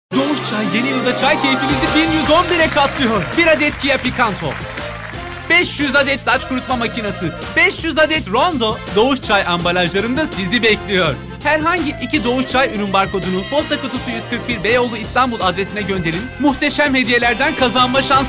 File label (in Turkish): ERKEK